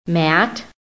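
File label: "mat", American English; PHONETIC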